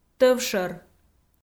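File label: "тӧвшӧр", Komi, female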